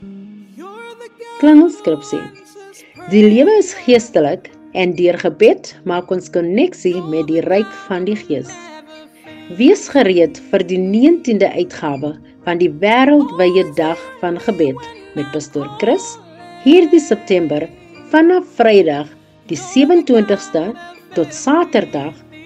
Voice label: Afrikaans JINGLES

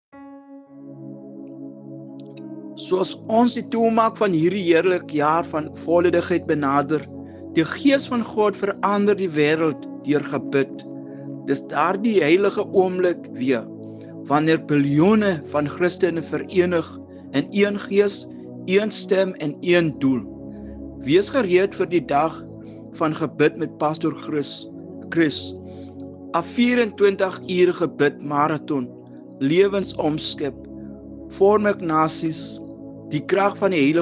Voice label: afrikaans jingle

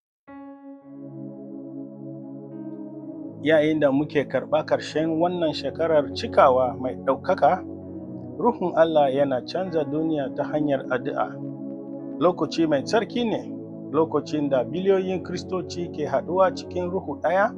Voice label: hausa jingle

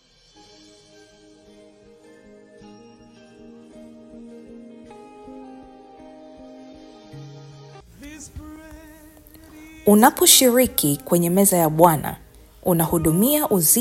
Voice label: SWAHILI JINGLES

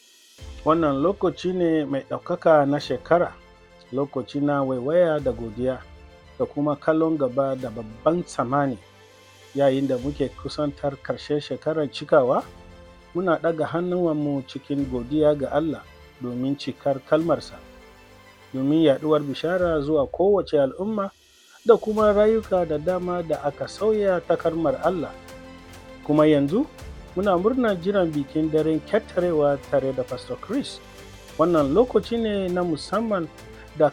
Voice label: night service jingle translated in hausa

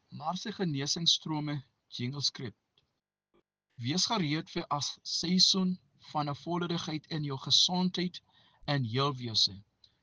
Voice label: AFRIKAANS; HEALING STREAM

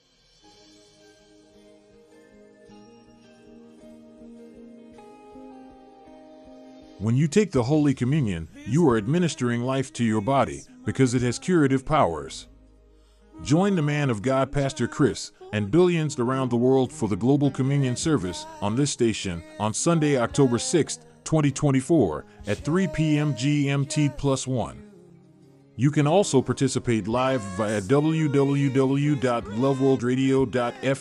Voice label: ENGLISH

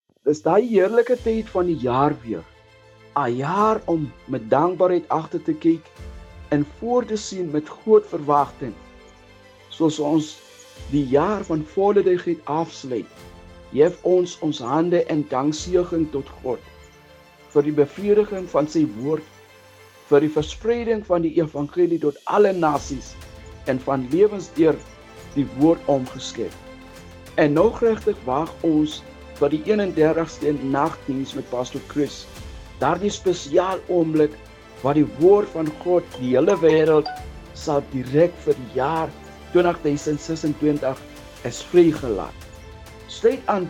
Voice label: night service jingle translated in afrikaans

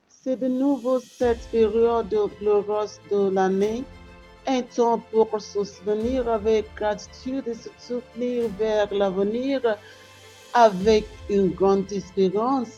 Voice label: night service jingle translated in french

